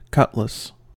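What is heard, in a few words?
"cutlass", US English; pronunciations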